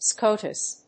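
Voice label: "scotus", English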